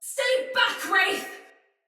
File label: Female; Battle; Sinecure Soldier; en